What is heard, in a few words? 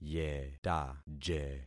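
"yetaje", concatenated syllables; Affixing experiment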